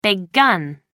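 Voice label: "big gun", English, British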